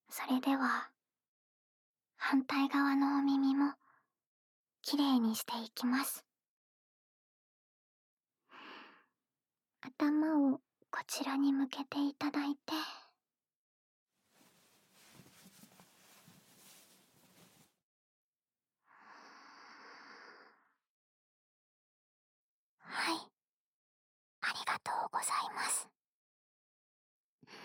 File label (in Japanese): からかい耳かき 左耳 無声音囁き 反転, ASMR